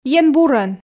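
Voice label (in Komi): ен бурӧн, Komi, female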